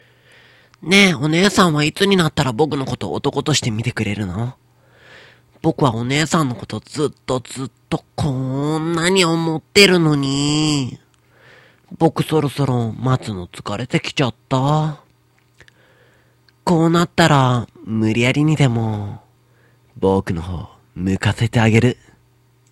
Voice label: boy